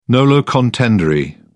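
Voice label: "nolo contendere", British English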